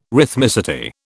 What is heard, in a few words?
"rhythmicity", British